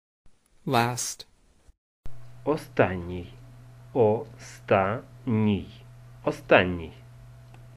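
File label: Ukrainian Last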